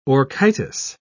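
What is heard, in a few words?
"orchitis", English, British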